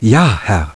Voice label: priest Move, german